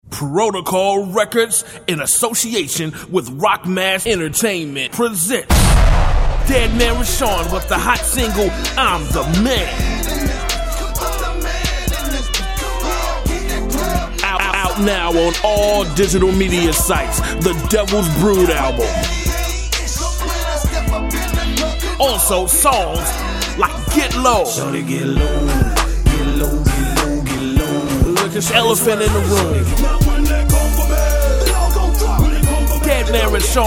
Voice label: commercial; devils brood